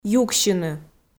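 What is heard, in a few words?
"юксьыны", Komi, female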